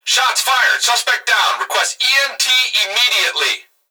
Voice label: Emergency Human Male Voice Police Radio Shots Fired Suspect Down Request EMT Immediately; emergency effects